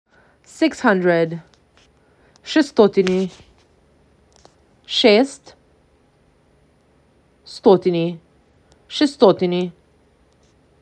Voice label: MAC 6, macedonian phrasebook